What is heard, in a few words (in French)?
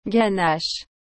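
"ganache", French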